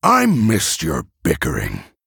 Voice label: Male Human; Varian; Valeera; Mission4 Exchange